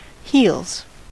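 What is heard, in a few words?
"heels", US English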